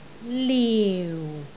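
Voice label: "liu4", Cantonese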